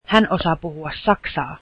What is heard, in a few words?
she can speak German, finnish